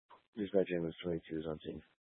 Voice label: Fire Department Dispatch, scanner